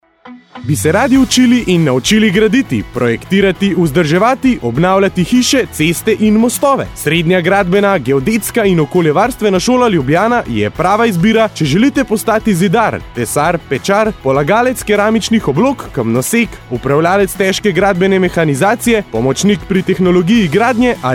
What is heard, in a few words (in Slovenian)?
oglas radio; grad; radio gorenc